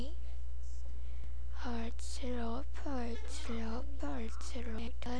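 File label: "earthquake", spoken word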